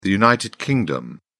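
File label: "United Kingdom", British English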